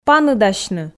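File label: "паныдасьны", Komi, female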